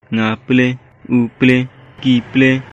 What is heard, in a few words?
"Nga plie", Khasi; Opened